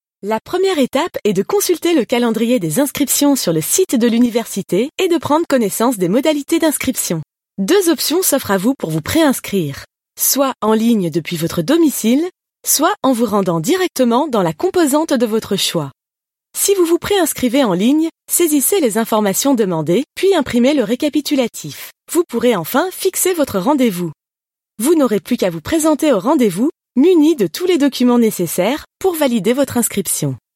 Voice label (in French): Institutionnel Explicatif dynamique